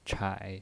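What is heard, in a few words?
"chai3", Mandarin pinyin voice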